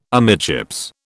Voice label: "amidships", British

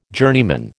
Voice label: "journeyman", American

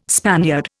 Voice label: "Spaniard", Indian

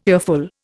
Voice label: "earful", Indian

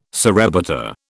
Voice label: "surrebutter", British

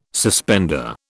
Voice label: "suspender", British

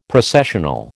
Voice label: "Processional", American

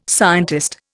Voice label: "scientist", Indian

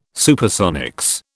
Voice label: "Supersonics", British

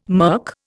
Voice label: "murk", Indian

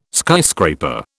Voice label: "skyscraper", British

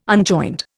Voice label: "unjoined", Indian

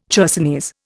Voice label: "chersonese", Indian

